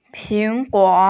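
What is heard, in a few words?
"ping2guo3", Mandarin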